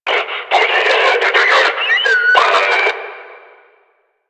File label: Player Spotted Attack, Barks Battle